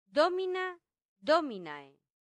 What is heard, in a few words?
"domina dominae", latin; santillana